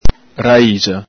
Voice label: "raixa", Veneto; N.fem. sing., plur. -xe; ENG: root; ITA: radice

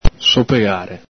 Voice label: "sopegare", Veneto

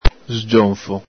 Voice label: "sgionfo", Veneto

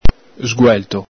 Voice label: "sguelto", Veneto

